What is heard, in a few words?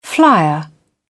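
"flier", British English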